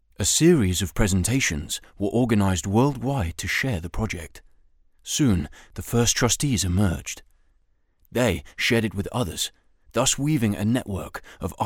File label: locutor ingles english voiceover